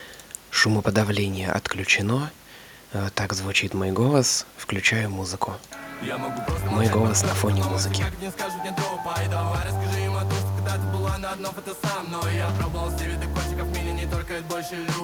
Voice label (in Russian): Без шумоподавления